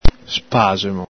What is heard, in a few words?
"spaxemo", Veneto